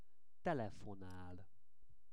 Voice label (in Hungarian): "telefonál", Hungarian; szavak es kifejezesek